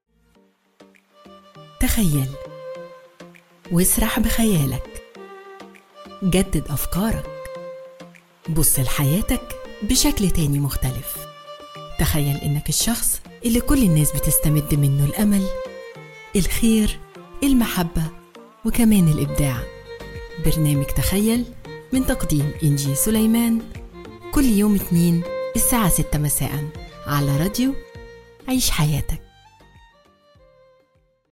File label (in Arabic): برومو اذاعى